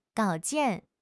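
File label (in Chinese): "稿件", Chinese; HSK6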